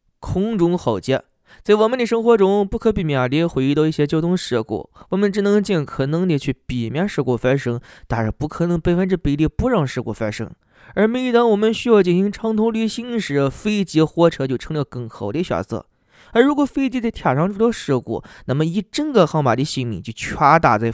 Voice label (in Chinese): 方言解说